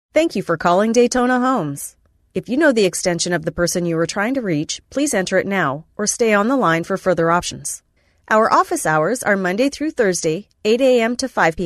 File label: Voice Prompt